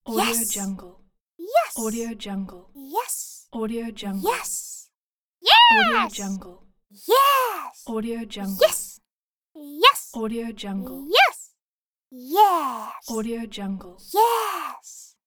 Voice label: a child saying yes; arrow music